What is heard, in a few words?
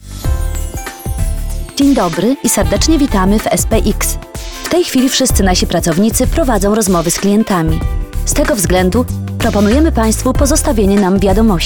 polish ivr